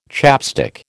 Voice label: "chapstick", American